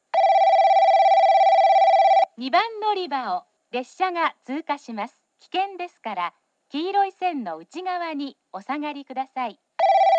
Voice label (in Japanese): 通過放送＋ベル 女声